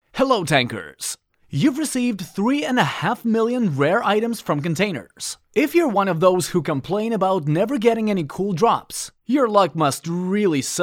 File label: Eng